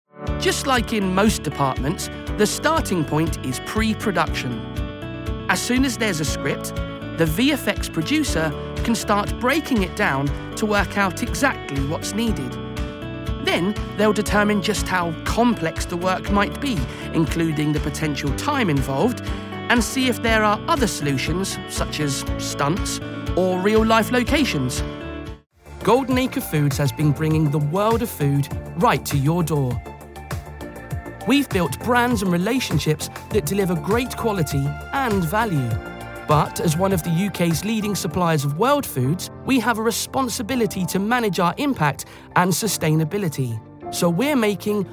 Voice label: Corporate